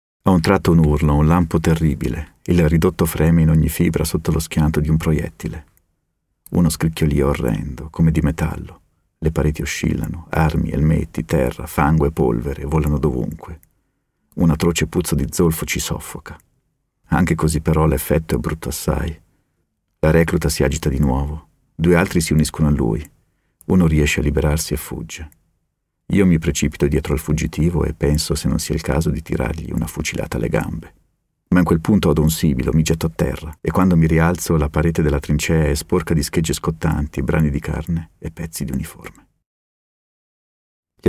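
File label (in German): Italian, Schauspiel Sanft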